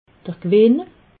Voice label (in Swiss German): "gewinn", alsace